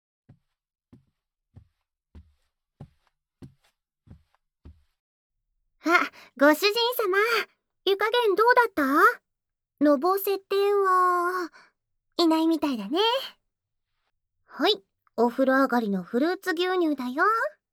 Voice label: 主人，来采耳了哦（右）

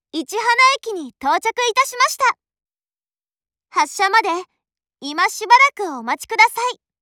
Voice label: 市塙站抵达 广播, 车内广播; 万冈铁路车内广播；真冈方向

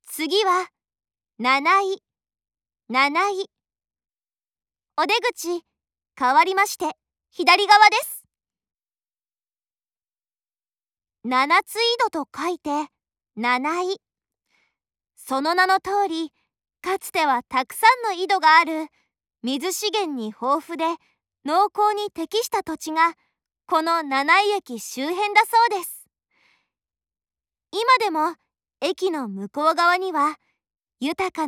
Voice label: 真岡方向 多田羅站發車 廣播; 萬岡鐵路社内放送

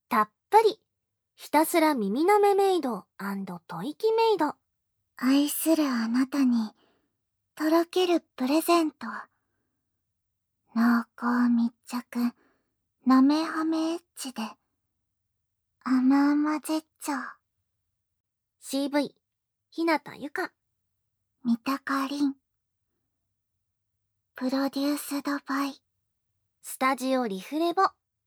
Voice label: 标题朗读, ASMR

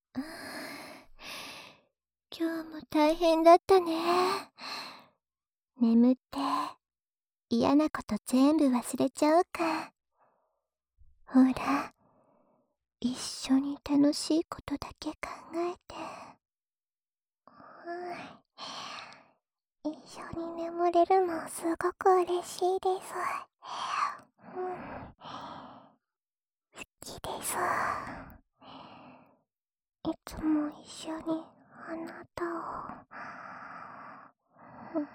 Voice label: 陪睡（声道翻转）

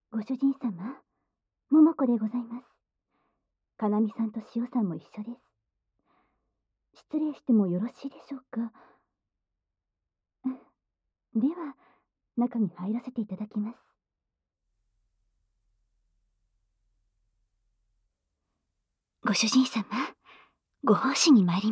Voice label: 三位淫乱女仆 序章♪（各个女仆的自我介绍）; 无SE

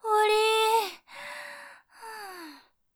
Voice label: 结束ー, 女生; 俺娘

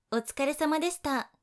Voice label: 辛苦了, 女生, 姐姐; 女生为你进行健身计数的语音

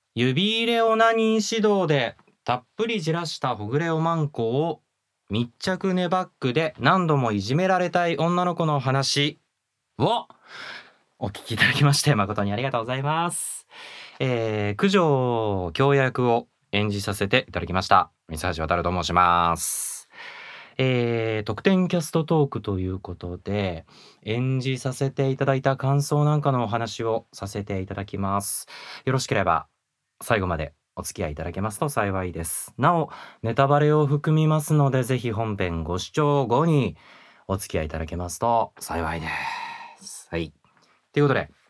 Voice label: 声优访谈

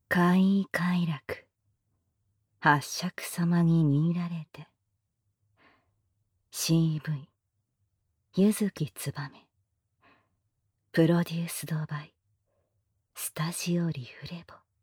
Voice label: 标题朗读, ASMR

